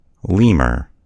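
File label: "lemur", US English; gcompris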